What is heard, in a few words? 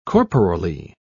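"corporally", British English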